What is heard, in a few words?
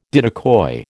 "diddicoy", American